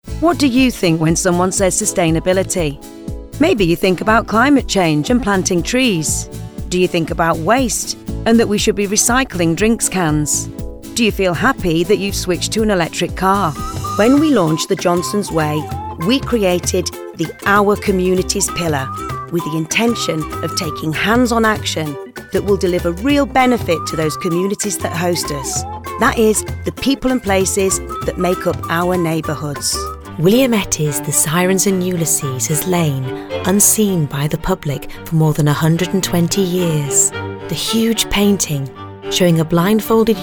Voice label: Corporate